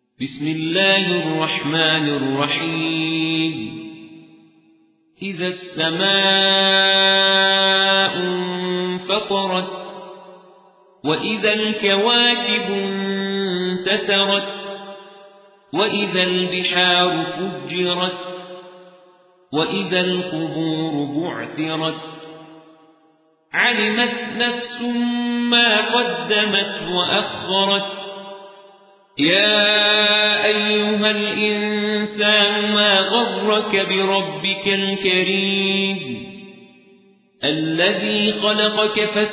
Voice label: ترتیل سوره انفطار

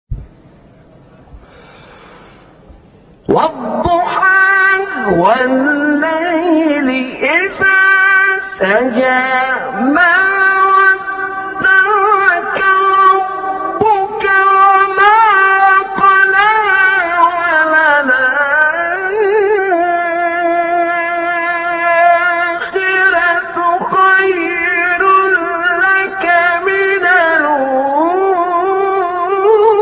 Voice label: تلاوت زیبای از سوره مبارکه ضحی; استاد نعینع

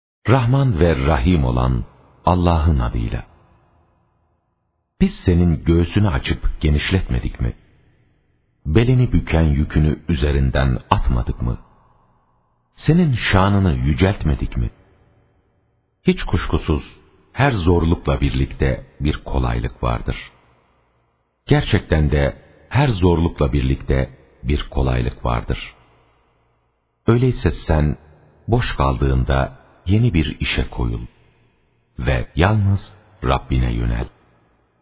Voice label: ترجمه گویای ترکی استانبولی; سوره انشراح

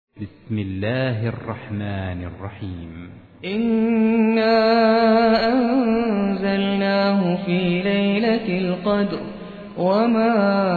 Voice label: ترتیل; سوره قدر

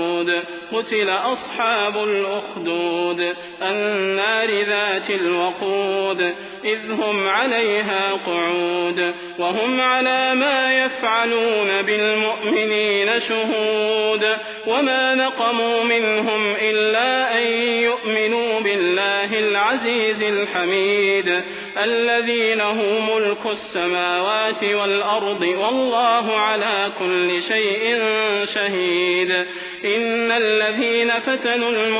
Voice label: ترتیل سوره بروج; صلاح البدیر

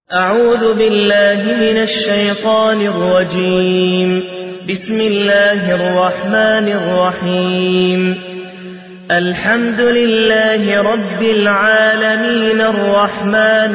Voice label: ترتیل; سوره فاتحه

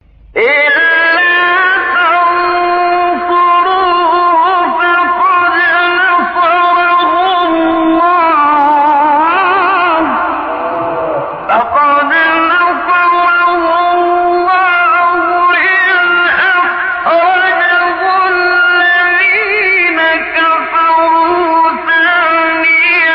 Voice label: مقطعی زیبا از تلاوت سوره توبه; استاد منشاوی

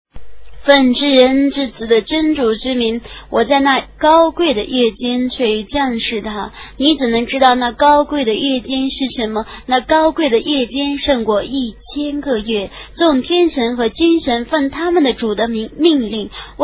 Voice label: ترجمه گویای قرآن کریم به زبان چینی; سوره قدر

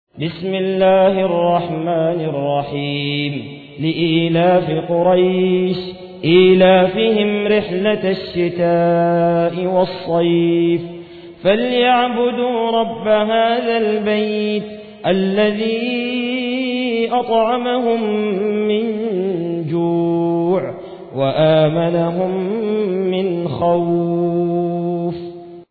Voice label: ترتیل سوره قریش

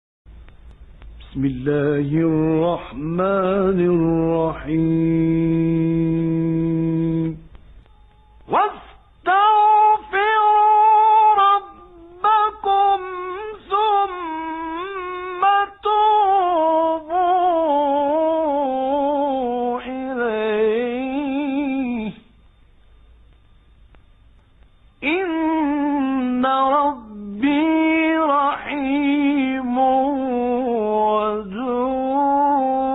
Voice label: تلاوت مجلسی سوره مبارکه هود; راغب مصطفی غلوش; آیه 90

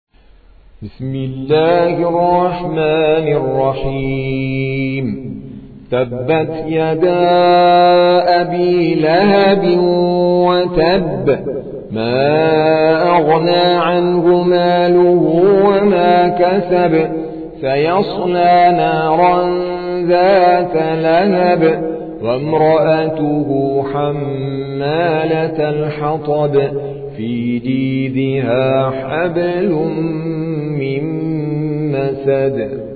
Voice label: ترتیل قاری هندی سوره مسد

